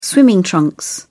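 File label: "swimming trunks", British English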